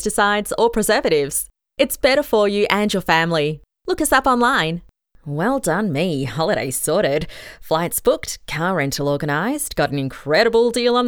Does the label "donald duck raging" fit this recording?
No